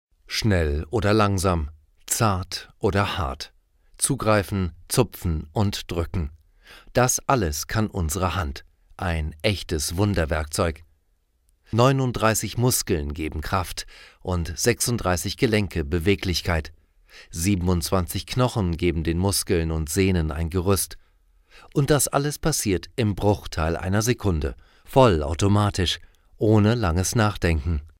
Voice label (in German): studioprobe, maenner; Berlin